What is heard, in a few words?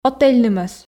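"отельнымӧс", Komi, female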